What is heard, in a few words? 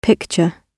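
"picture" British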